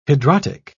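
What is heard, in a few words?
"hidrotic", English, British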